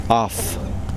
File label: "rain", Kosraean